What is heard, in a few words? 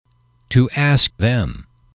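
to ask you them, male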